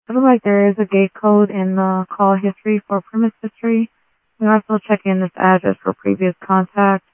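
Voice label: Sheriff West County, scanner audio trunk; ThompsonPeakScot; MCSO - WEST; Sheriff-WestCnty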